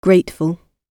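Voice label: "grateful", British English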